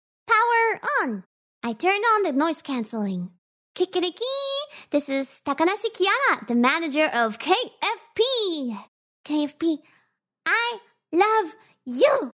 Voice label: ENG